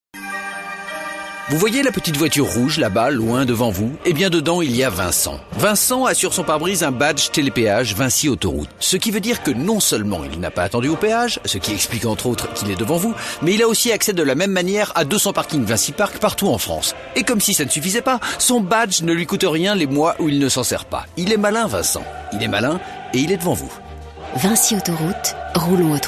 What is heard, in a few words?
spot, radiopub